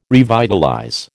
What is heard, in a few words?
"revitalize", American